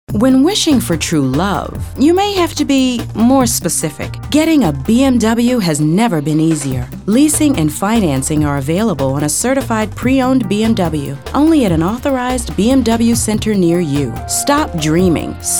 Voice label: COMMERCIAL; BMW